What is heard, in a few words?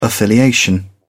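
"affiliation", English, uk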